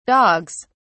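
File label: "dogs", British English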